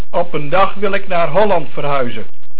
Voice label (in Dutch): "Verhuizen", Dutch